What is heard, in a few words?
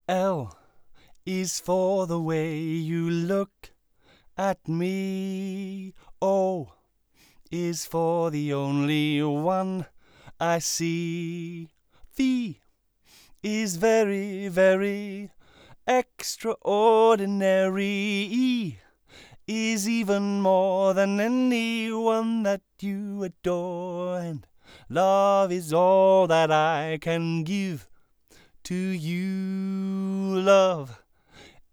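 Vox Horizontal Displacement Left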